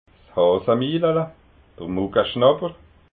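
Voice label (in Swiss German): "hasamilela", Alsatian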